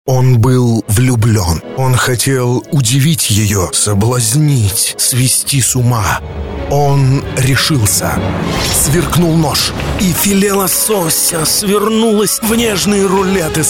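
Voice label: Russian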